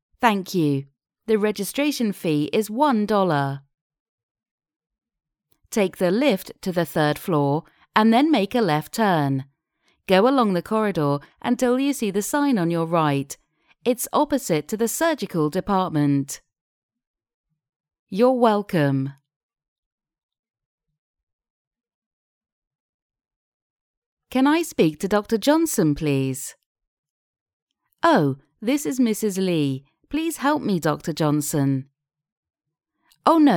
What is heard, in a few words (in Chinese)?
外籍英式英语操作指引